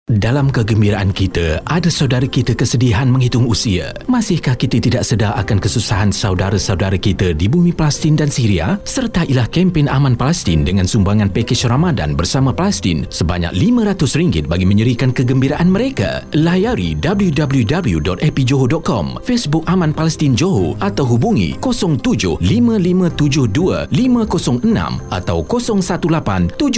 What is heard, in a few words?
FM COMM; AMAN PALESTIN